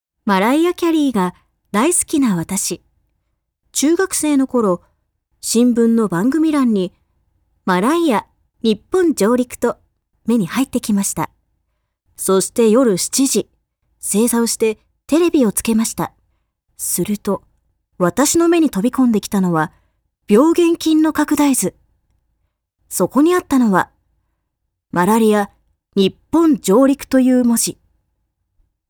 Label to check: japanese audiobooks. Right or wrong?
Right